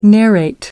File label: narrate